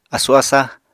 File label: "asu-asa", Apatani